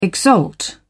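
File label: "exalt", British English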